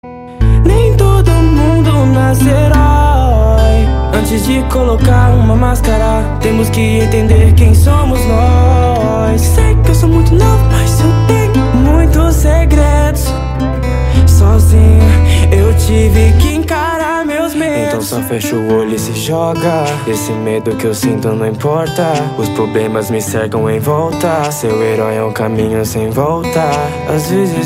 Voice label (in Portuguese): Rap Dos Homens